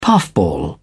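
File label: "puffball", British English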